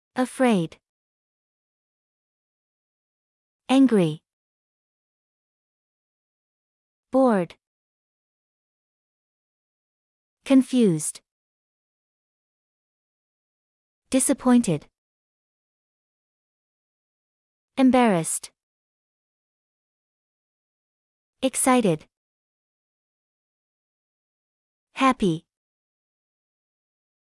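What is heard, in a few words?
American; emotions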